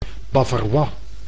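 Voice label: "bavarois", Dutch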